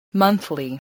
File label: "monthly", voice, spelling